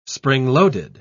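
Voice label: "spring-loaded", English, British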